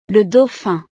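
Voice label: "le dauphin", French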